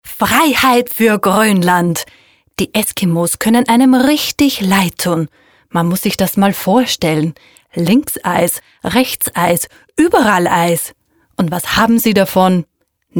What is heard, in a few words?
comedy